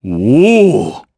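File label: Vox Happy, Japanese; kingsraid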